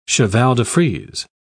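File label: "cheval-de-frise", British English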